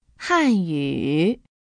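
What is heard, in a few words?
"hanyu", Chinese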